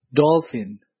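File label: "dolphin", English; grade4; vertebrates invertebrates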